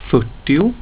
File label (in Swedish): "fyrtio", Swedish; getal